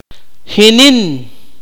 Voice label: "run", Akateko